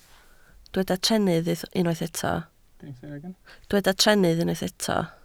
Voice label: "trennydd", Welsh